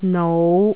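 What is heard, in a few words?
"nou5", Cantonese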